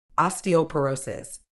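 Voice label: "osteoporosis", medical English, British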